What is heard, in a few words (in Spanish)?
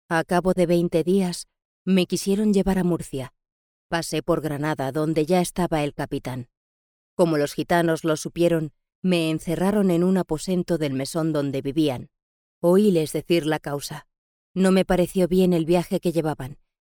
locutora spanish voiceover